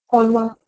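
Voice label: "poluo", Proto-Indo-European; eastern origins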